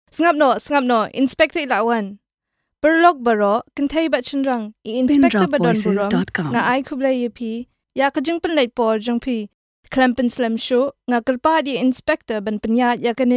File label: Khasi